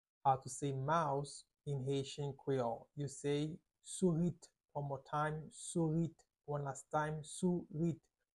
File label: "Sourit" Haitian Creole pronunciation by a native Haitian tutor; How to say Mouse in Haitian Creole